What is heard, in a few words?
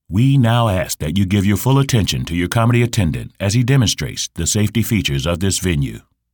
Movie Trailer Voice